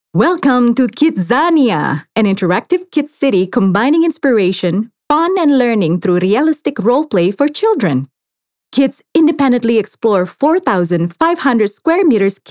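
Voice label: casual TV commercial English; Kidzania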